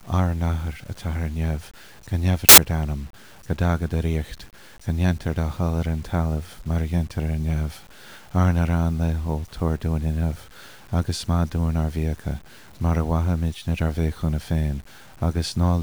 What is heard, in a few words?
Our Father Irish